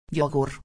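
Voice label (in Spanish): "yogur", Spanish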